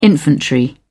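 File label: "infantry", British English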